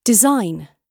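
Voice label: "design" spoken, British English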